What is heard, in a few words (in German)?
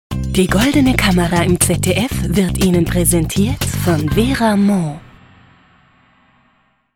Fremdtake Dynamisch, voices